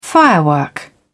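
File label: "firework", British English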